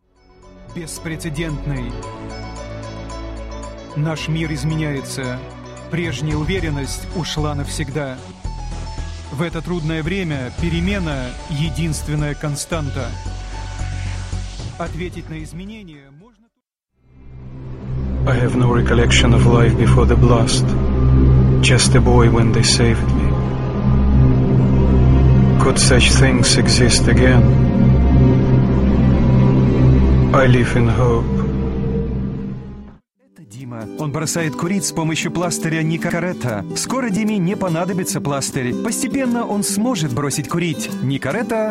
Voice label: Voice English and Russian Montage